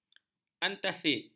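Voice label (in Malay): "antasid", Malay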